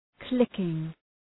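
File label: "clicking", English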